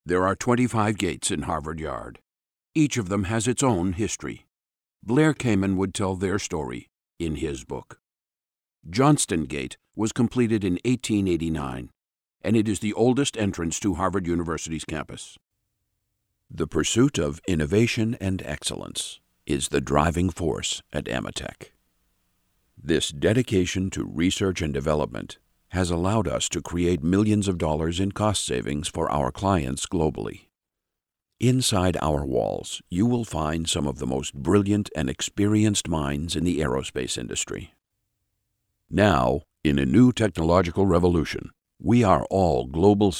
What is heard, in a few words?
NARRATION